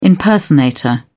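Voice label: "impersonator", British English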